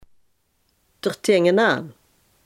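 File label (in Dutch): "ertegenaan" Limburgish dialect word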